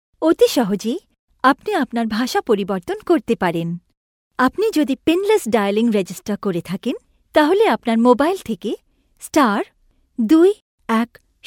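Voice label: Bengali Bangladesh, female, voice over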